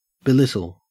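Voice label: "belittle", Australian English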